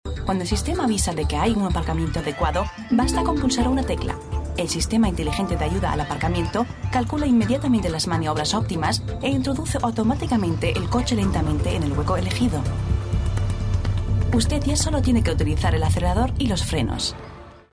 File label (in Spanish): es